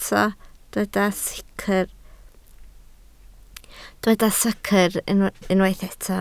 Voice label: "secr", Welsh